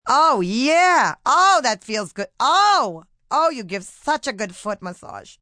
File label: Foot Massage, Sexy, fun, female